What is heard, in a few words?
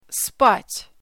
"Sleep" In Russian